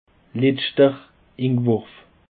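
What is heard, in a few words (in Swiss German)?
"letzerinwurf", Bas Rhin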